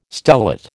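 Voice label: "stellate", American English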